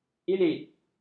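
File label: "elit", Malay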